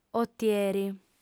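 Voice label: "otieri", Sardinian, female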